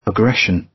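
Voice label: "aggression", British; Flora and fauna, agriculture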